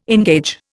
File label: "engage", Indian